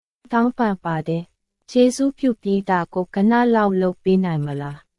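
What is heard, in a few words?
Myanmar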